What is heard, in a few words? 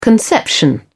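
"conception", British English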